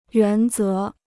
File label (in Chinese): "原则", Chinese